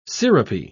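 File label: "sirupy", British English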